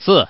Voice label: Man